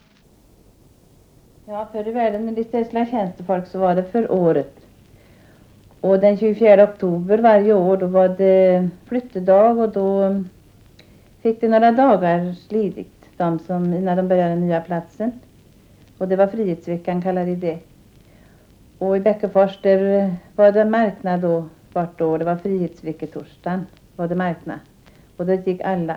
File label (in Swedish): Intervju; om flyttedag och marknad